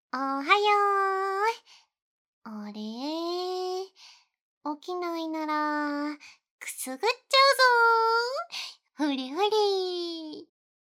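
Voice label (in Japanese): 目覚まし（いたずらっぽく）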